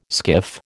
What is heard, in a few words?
"skiff", American